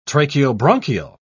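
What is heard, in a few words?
"tracheobronchial", British English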